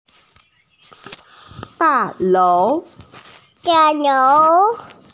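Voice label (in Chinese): "da4lou2", Mandarin Chinese, female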